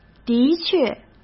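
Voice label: "的确", Mandarin Chinese; chinese flashcards; hsk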